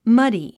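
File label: "muddy", English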